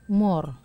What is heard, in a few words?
"mor", Wamesa